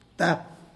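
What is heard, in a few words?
"four", Tlacolula Zapotec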